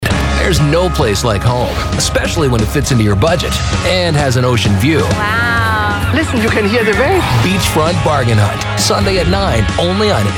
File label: TV Promo; HGTV